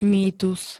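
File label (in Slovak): "mýtus", Slovak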